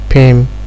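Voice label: "pim", Nigerian Pidgin, male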